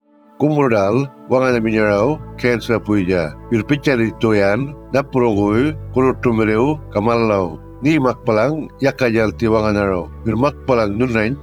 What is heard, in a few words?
Cancer Australia Radio, Yolngu Matha